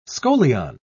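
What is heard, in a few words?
"scolion", British English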